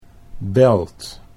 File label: "belt", Dutch